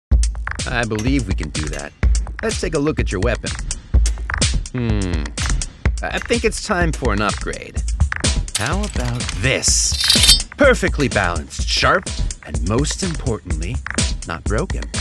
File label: Character; Character Demo